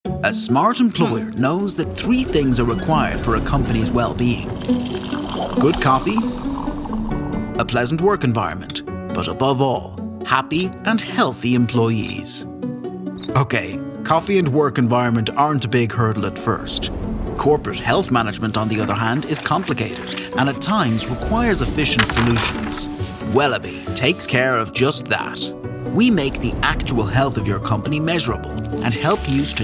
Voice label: male english irish neutral irish accent commercial; irish southern; wellabe